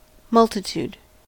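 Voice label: "multitude", US English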